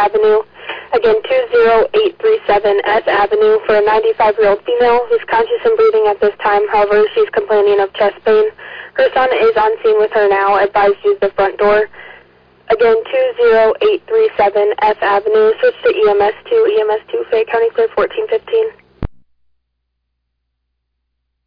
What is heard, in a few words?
Ambulance, dispatch; Elgin